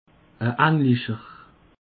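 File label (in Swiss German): "englischer", Alsatian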